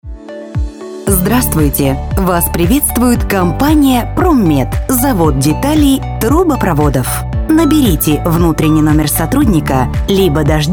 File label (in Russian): ivr